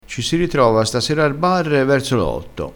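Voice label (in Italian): "barre", dialettale crespinese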